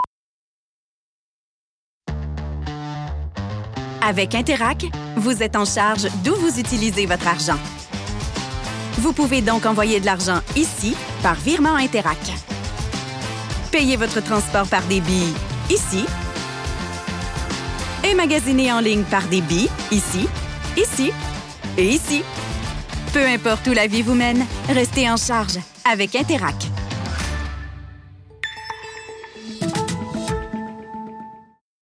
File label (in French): publicite